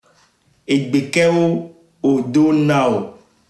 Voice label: ewe togo

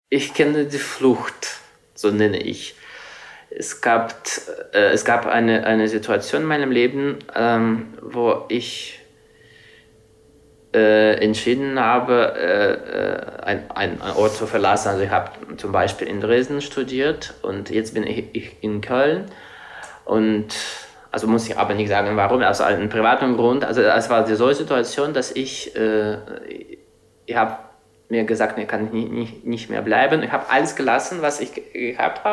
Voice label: russisch

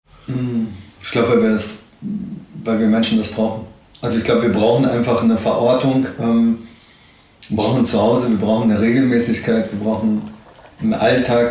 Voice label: arabisch

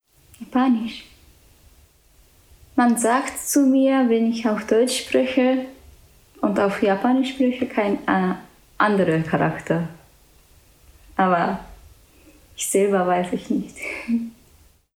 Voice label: japanisch

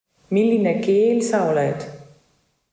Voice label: estnisch

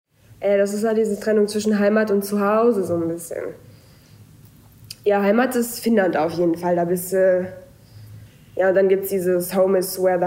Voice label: finnisch schwedisch finnland

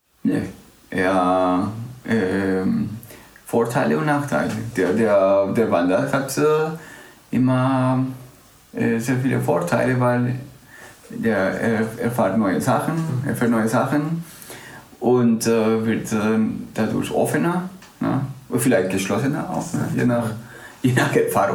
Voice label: spanisch guatemala

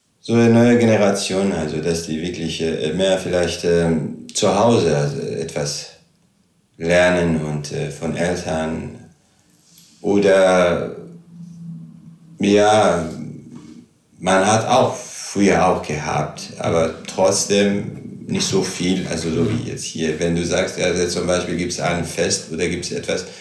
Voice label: arabisch syrien

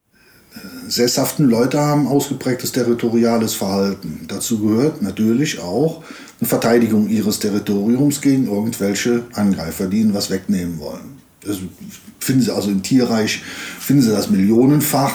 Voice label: koelsch

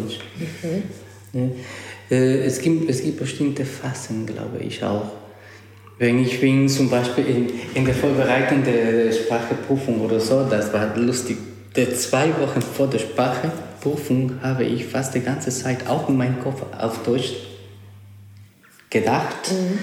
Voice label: spanisch ecouador

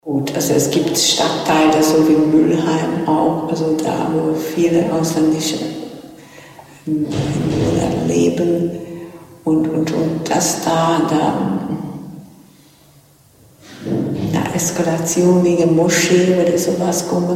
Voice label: ungarisch

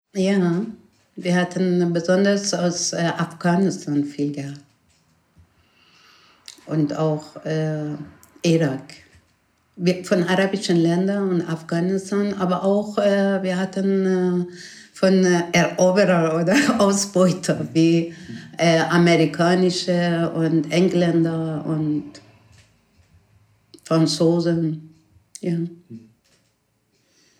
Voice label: persisch

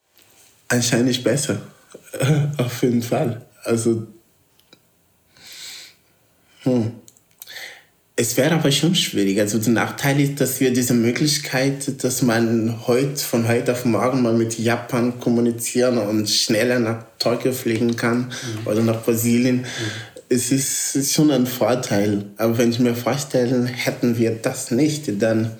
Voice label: portugiesisch mosambik

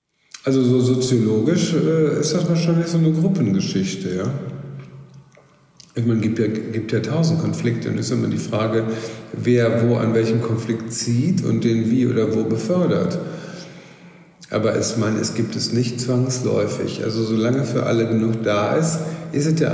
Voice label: deutsch

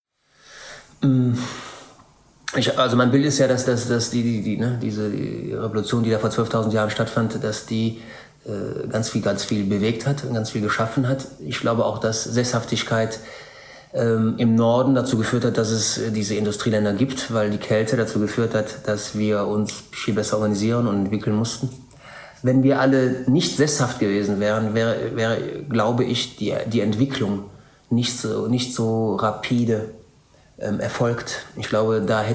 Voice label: kroatisch

